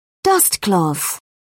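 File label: "dustcloth", British English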